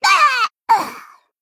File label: Female Gnome Death